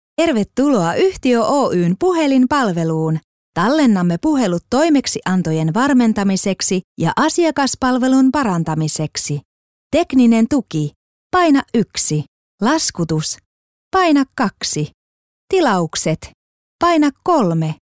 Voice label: female finnish voice over upbeat

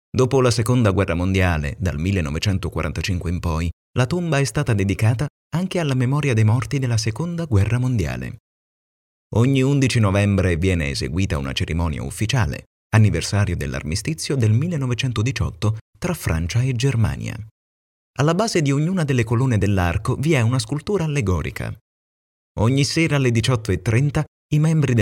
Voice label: male italian voice over talent narrative

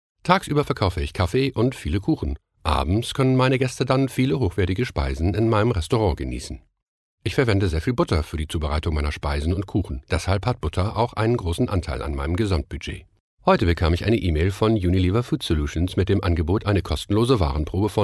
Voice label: male german voice over upbeat

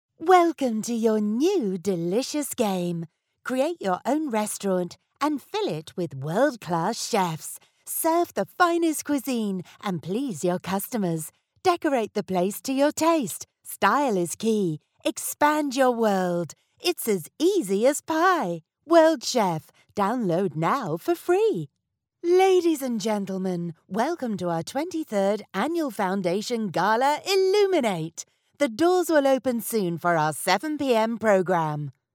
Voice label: female british voice over upbeat; english british

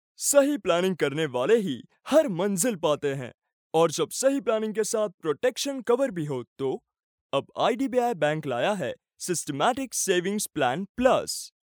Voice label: male hindi voice over talent sales

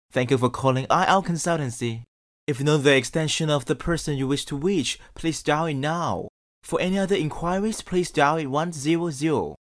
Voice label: male cantonese voice over english

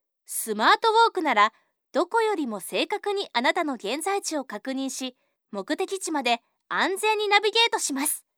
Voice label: female japanese voice over sales

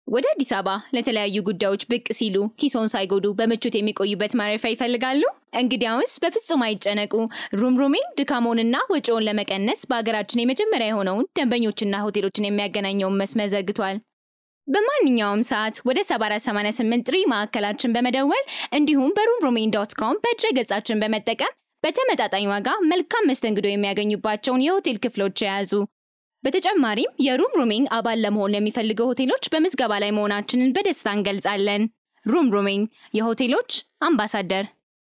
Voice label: female amharic voice over narrative

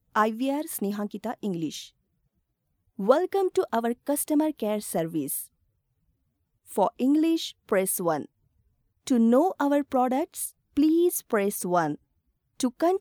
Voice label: female hindi voice over english

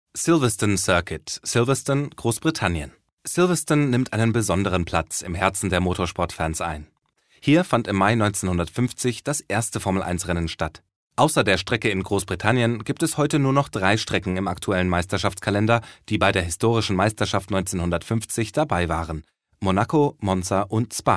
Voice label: male german voice over talent narrative

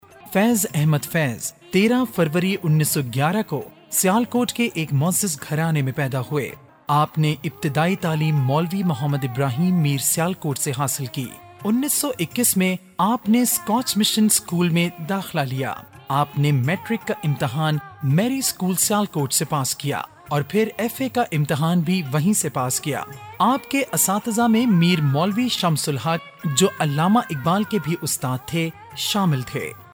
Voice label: male urdu voice over talent narrative

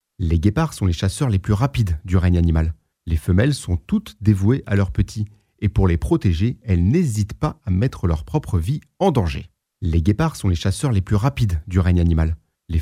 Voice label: male french voice over talent narrative; france

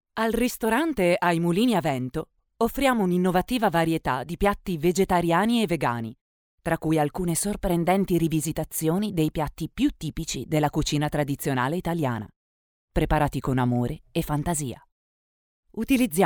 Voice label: female italian voice over sales

